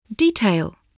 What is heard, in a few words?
"detail", english spelling